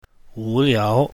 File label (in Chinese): "wu liao", Mandarin